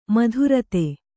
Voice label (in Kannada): "ಮಧುರತೆ", Kannada